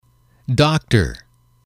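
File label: "doctor", English, male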